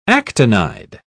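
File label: "actinide", British English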